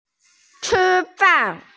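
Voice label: "chi1fan4", Mandarin Chinese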